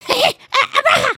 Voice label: Pick me, emotes